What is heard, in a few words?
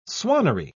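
"swannery", British English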